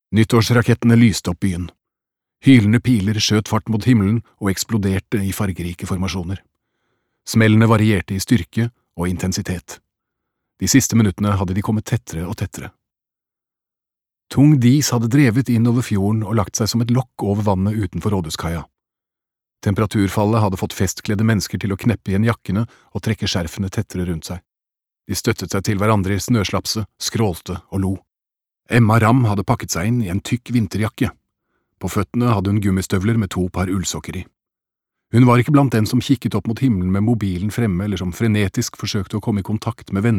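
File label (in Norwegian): lydbok, Norwegian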